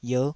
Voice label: "ierh5", taiwanese syllables

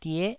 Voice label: "die9", Taiwanese

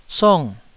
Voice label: "song4", Taiwanese syllable

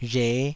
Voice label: "rhe5", taiwanese

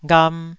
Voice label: "gham2", Taiwanese syllable

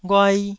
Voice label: "ghuai1", Taiwanese syllable

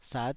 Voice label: "sat5", taiwanese syllable

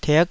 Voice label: "tik5", taiwanese Syllables

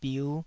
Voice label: "biu5", taiwanese Syllables

